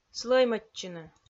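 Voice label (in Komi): "слӧймӧдчыны", Komi, female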